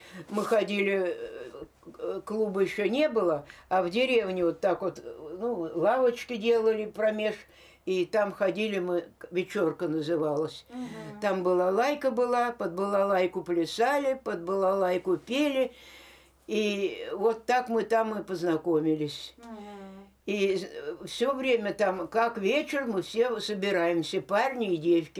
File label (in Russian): среднеобский говор; вечёрка